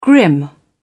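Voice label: "grim", British English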